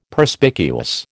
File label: "Perspicuous", American